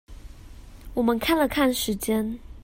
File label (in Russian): Тайваньский; 我們看了看時間; commonvoice